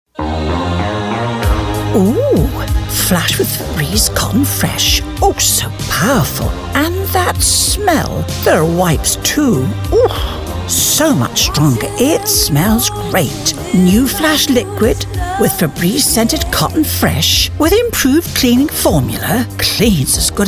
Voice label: Commercials

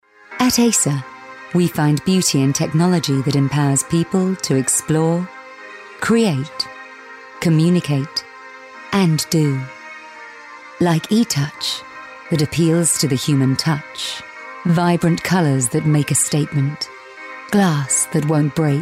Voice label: Corporate Narration

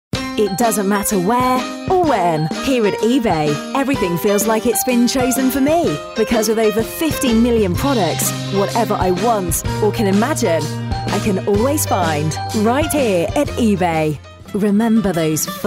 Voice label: Commercials

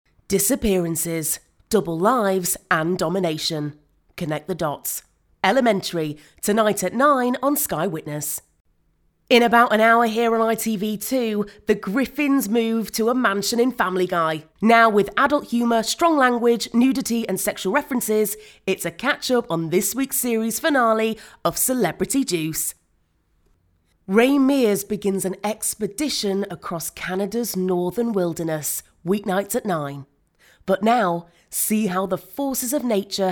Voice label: continuity

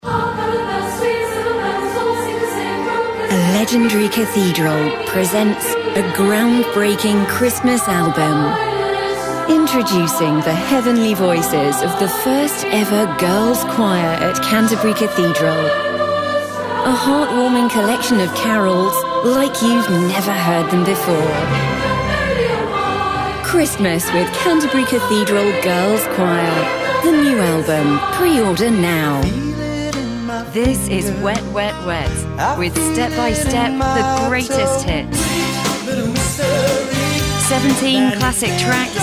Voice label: Music Commercials

